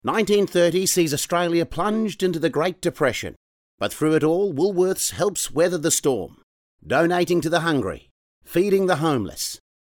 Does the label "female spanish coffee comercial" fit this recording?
No